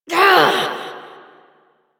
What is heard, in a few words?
Frustration; Warframe; Entrati Doppelganger; Evil Twin